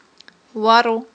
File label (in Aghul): "варв", Aghul